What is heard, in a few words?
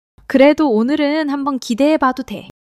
excitement; monologue